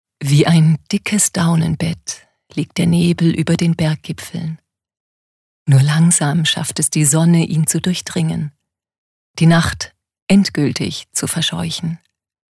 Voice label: Schauspiel Poetisch

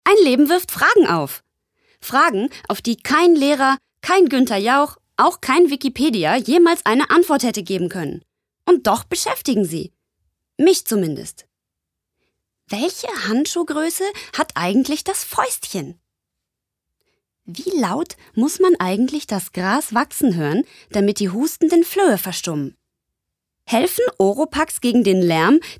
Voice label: Schauspiel Witzig

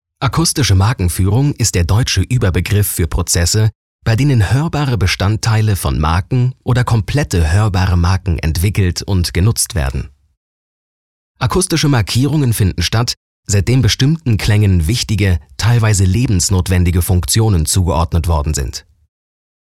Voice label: Neutral; AkustischeMarkenfuehrung

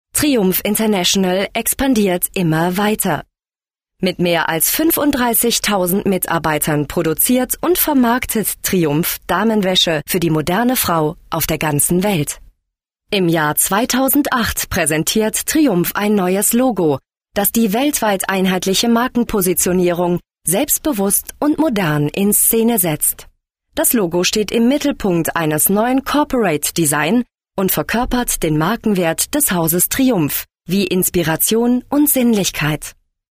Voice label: Werbung Freundlich

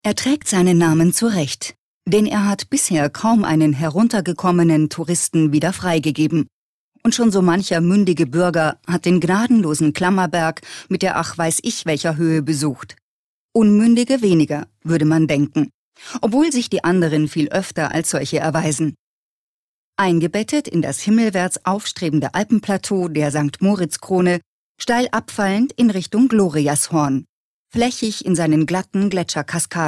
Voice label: Kommentar Neutral

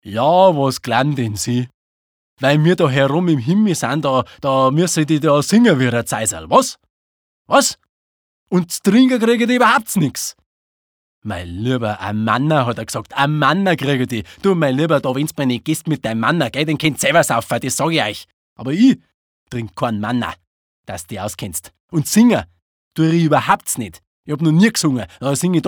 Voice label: Dialekt, Bayerisch

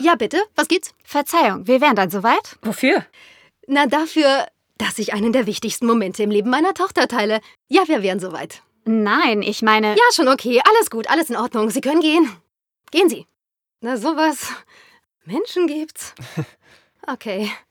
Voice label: Fremdtake Dynamisch

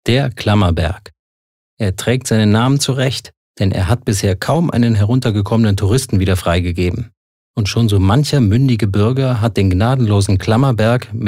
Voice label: Kommentar Neutral

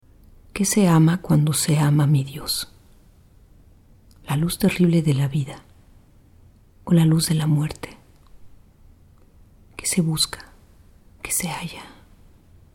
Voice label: Schauspiel Sanft, ESP

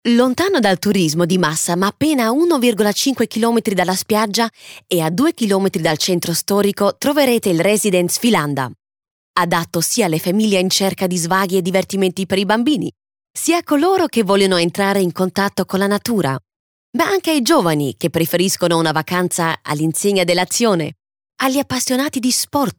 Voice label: Kommentar Neutral, IT

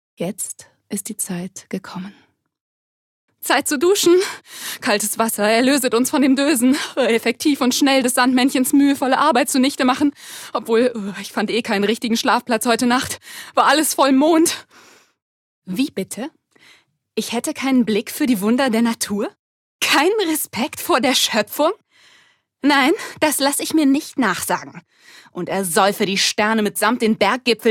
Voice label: Schauspiel Variabel

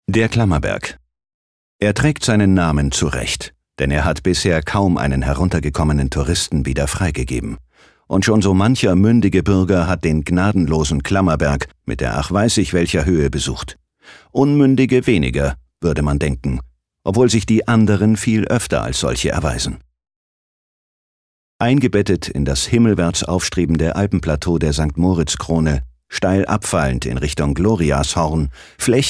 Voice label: Kommentar, Neutral

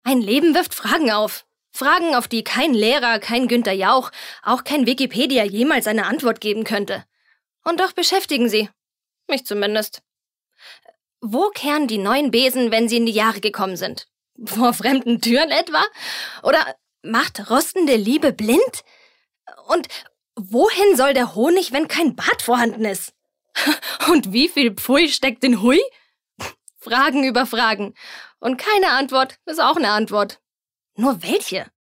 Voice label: Schauspiel, Dynamisch

